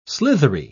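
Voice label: "slithery", British English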